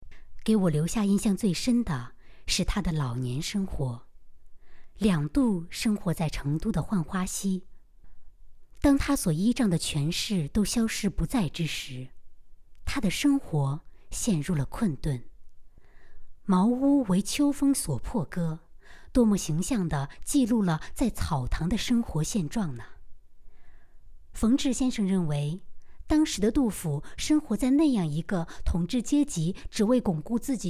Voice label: 录音沉稳, 女, 青年（18-35）, 普通话, 温柔甜美; 杜甫

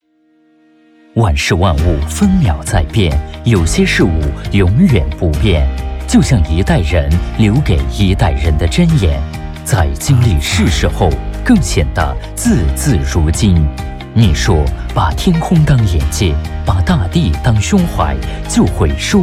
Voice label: 企业宣传片 质感; 万事万物

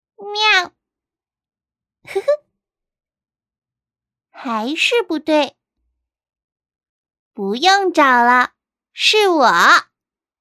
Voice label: 萝莉音

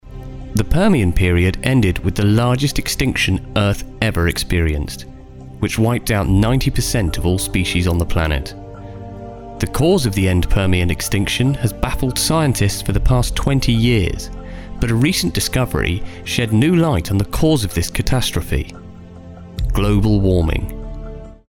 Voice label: 神秘大气历史纪录片风格

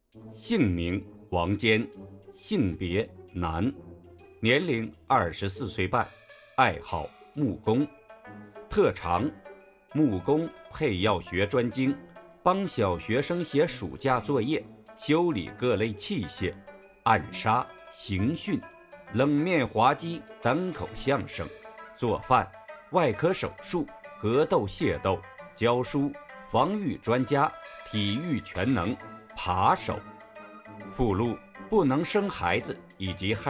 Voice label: 片花

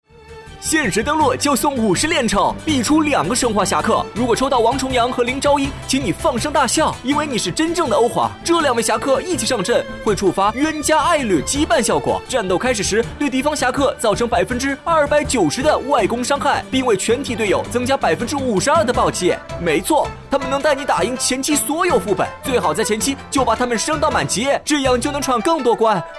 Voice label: 游戏广告

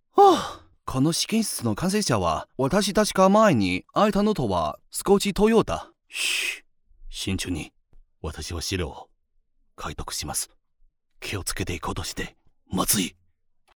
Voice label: 日语角色

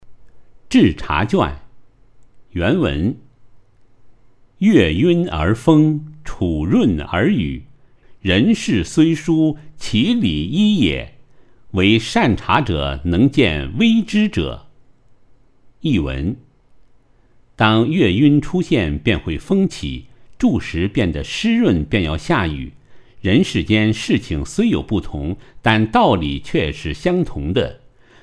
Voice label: 读物

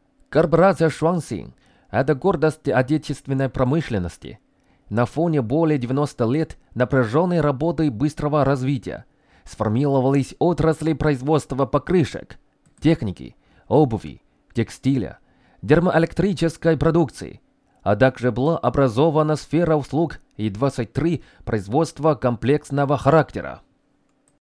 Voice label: 俄语

